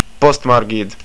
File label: stamps, estonian